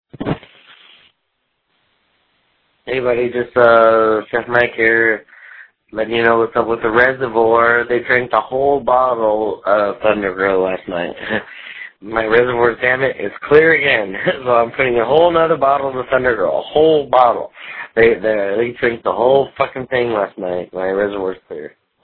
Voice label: voicemail